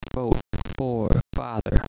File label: reconstructed compressed speech